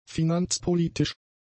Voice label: "finanzpolitisch", German; adjectives

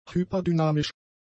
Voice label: "hyper-dynamisch", German; adjectives

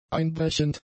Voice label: "einbrechend", German; adjectives

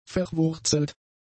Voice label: "verwurzelt", German; adjectives

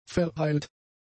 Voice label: "verheilt", German; adjectives

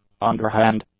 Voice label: "underhand", English; adjectives

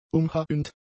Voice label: "umhauend", German; adjectives

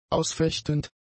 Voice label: "ausfechtend", German; adjectives

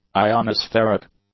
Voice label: "ionospheric", english; adjectives

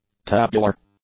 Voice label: "tabular", English; adjectives

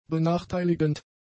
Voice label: "benachteiligend", German; adjectives

